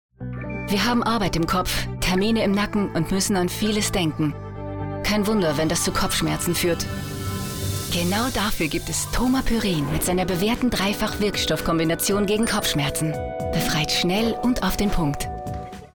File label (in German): Frau OFF